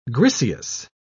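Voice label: "griseous", British English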